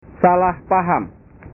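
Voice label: "salah paham", Indonesian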